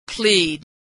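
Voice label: "plead", American English; verb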